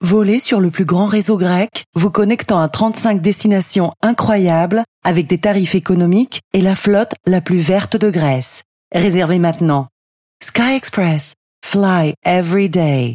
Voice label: FRENCH; SKY EXPRESS